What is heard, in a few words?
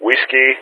"Whiskey", player speech; Ka-50; Alphabet; Whiskey-begin; DCS